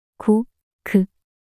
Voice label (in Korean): "고크" 낱소리 여자